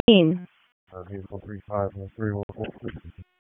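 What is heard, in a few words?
Police District Dispatch; Raleigh; Northeast; wake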